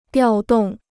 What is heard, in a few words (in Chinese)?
"调动", Chinese